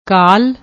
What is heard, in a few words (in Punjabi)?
"kaal", Punjabi; ਕਾਲ